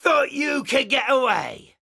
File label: Pirate Elite Male Alert Line; NPC; dialogue; Heist Thug Spotted